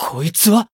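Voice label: Voice encount fear, Japanese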